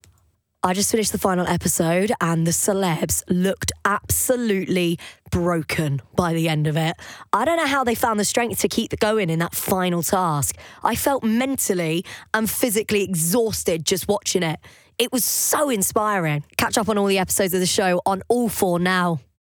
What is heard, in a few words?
Continuety; Ch4